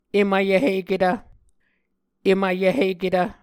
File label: "em vyvhiketv", muscogee